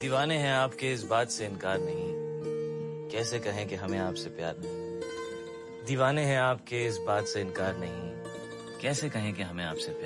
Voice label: Shayari Ringtone

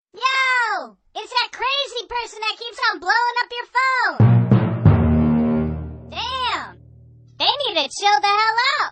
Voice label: Crazy Person Ringtone

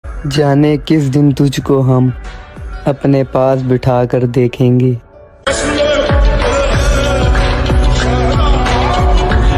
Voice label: Romantic Shayari Ringtone

